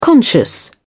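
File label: "conscious", British English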